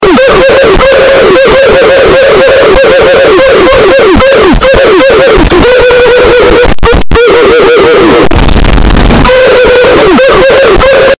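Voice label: oof earrape distorted; roblox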